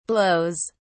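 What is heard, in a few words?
"blows", British English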